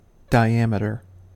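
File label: "diameter", US English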